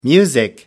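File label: "music", English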